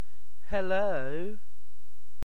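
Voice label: "hello", British English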